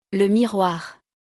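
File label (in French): "Le miroir", French; vocabulaire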